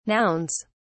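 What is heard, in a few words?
"nouns", British English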